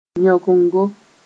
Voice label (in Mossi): "yõgengo", moore